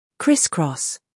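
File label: "scandal", American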